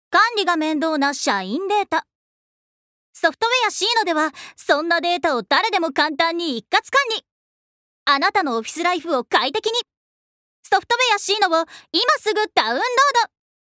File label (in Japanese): ナレーション